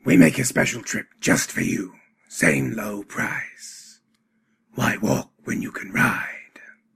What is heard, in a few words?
silt strider, dark elf voice auditions